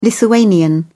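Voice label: "lithuanian", British English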